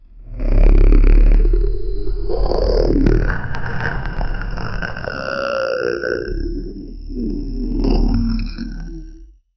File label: alien vocalization non hu